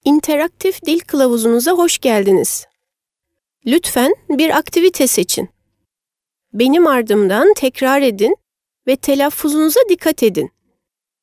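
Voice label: Turkish female corporate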